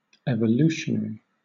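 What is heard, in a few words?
"evolutionary", British English; pronunciations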